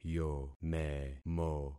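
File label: "yomemo", concatenated syllables; Affixing experiment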